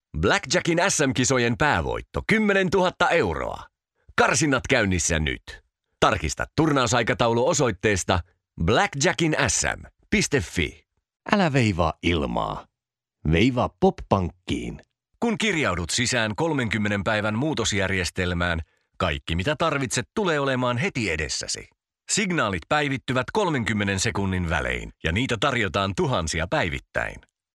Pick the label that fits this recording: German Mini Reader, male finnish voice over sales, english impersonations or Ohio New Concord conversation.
male finnish voice over sales